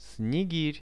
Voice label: "snegir" Russian; снегирь; birds; RUSSIAN VISUAL DICTIONARY